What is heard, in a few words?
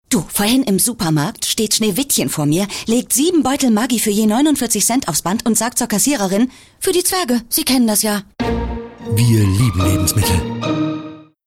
female german promo comercial; ideasonora